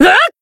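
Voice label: Voice attack, Japanese; minalca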